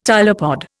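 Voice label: "tylopod", Indian English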